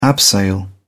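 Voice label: "abseil", English, British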